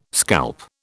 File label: "scalp", British